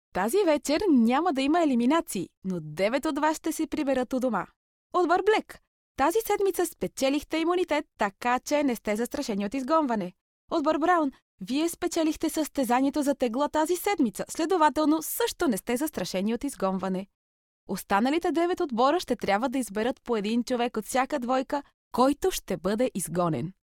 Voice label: bulgarian f narration; voice demos